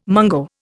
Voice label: "mungo", Indian